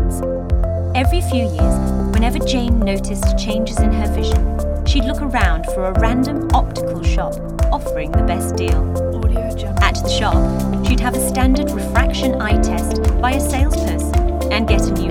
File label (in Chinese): 英語女聲, Health